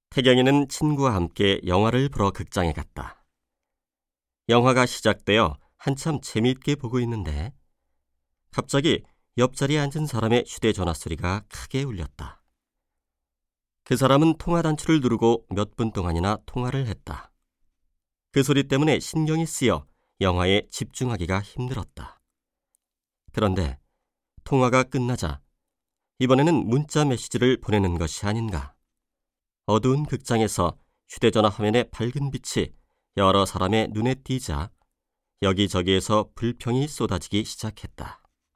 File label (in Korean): 내레이션; 도덕